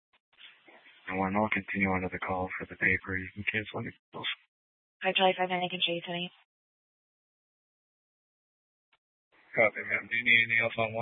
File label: Mesa PD Fiesta Dispatch